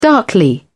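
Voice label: "darkly", British English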